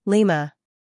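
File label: "Lima", American English; sounds-of-american-english; everyone-can-use-english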